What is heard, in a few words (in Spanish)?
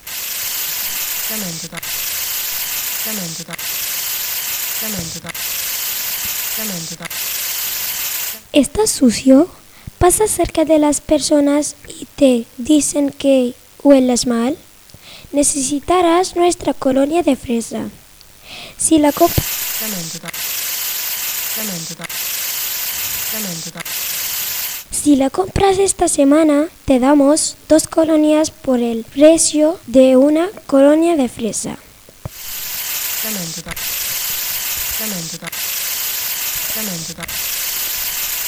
anuncio